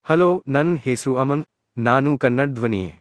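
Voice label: Kannada, India; iOS 26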